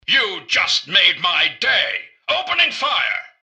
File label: generic robot alert to combat; mrgutsy; npc